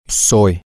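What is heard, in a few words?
I am permanent, Spanish, male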